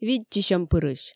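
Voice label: "видзчысьӧмпырысь", Komi, female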